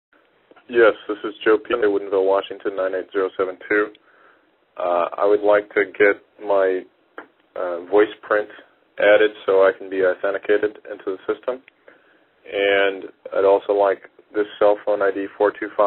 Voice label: voicemail; ask for a voiceprint phrase; hotline